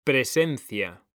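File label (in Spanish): "PRESENCIA", Spanish; mekanta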